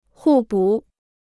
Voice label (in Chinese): "互补", Chinese